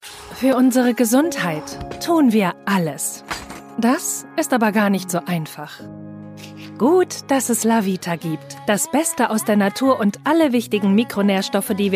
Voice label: german commercial

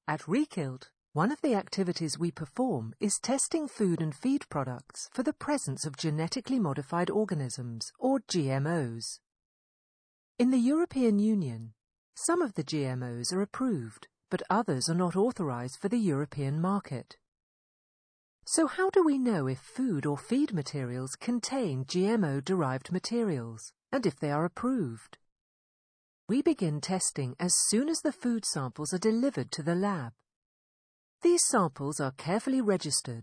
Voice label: english british narration

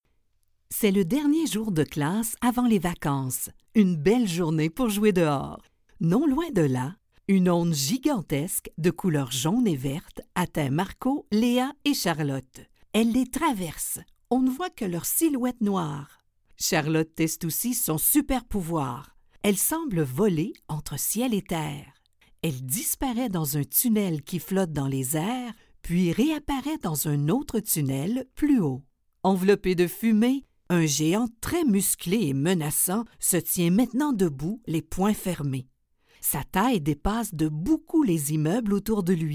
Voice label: french canadian audiobooks

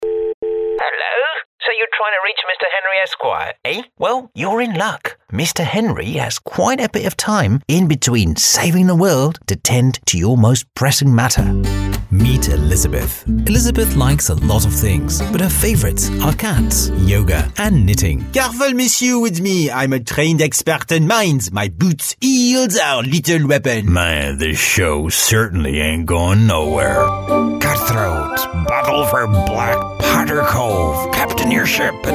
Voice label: English international animation

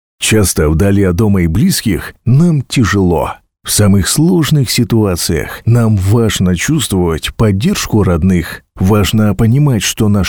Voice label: russian movie trailers

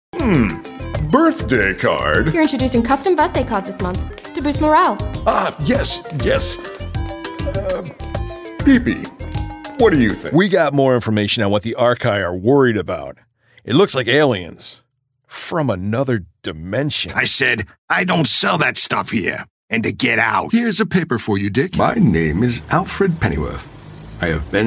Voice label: english american animation, american voice actors; Gen X Männerstimme; der lässige, gesprächige Ton

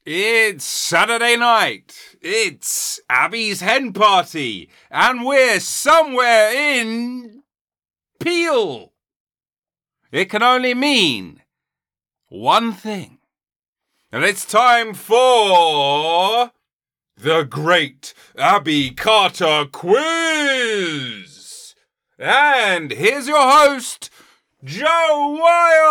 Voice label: english british announcements

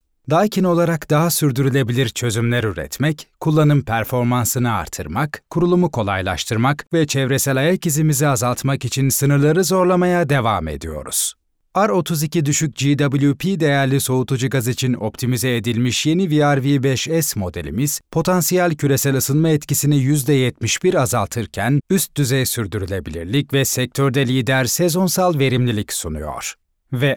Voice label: turkish corporate videos

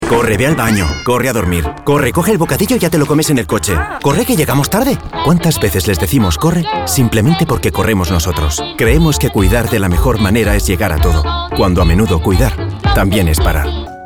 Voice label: spanish commercial, articulate; Katalanisch Spanisch; Spanien

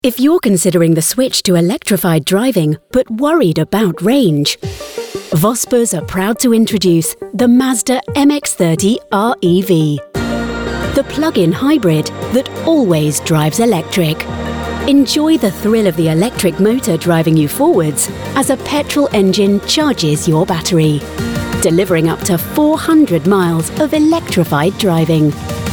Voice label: english british automotive

